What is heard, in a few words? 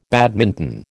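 "badminton", American English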